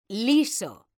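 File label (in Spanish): "liso", Spanish, female; mekanta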